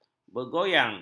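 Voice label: "bergoyang", Malay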